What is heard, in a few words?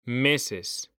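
"meses", Spanish; mekanta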